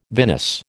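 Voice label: "vinous", American English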